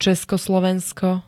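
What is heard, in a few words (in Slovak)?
"Československo", Slovak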